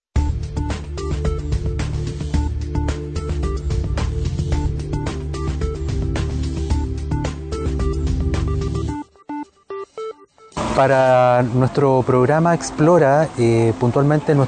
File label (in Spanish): podcast; PIPE EXPLORA